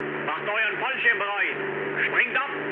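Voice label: German bailout mood med, speech; War Thunder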